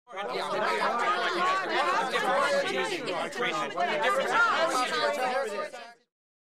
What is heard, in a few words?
Reporter Walla With Yelled Qu